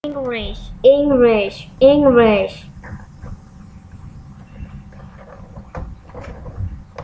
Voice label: English